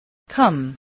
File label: "come", voice